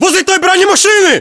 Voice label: landmark near armored vehicle, battlechatter ru; localized english

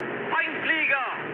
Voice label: enemy detected, mood high, speech ge; War Thunder

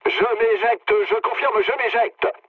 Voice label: bailout mood low, speech fr; War Thunder

